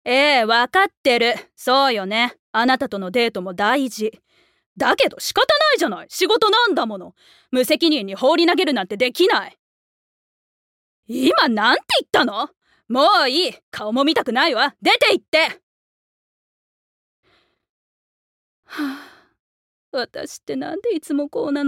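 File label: voice sample, career woman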